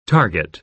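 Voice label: "target", American English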